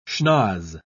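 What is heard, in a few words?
"schnoz", British English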